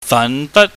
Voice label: "fanbat", Cantonese